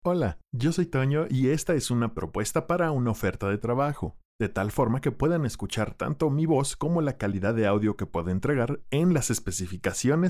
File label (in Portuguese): Espanhol; locutores nativos